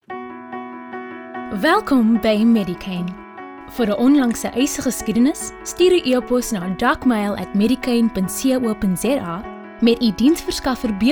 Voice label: IVR